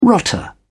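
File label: "rotter", British English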